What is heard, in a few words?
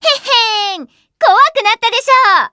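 victory, Japanese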